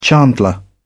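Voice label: "chandler", British English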